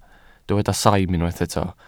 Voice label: "saim", Welsh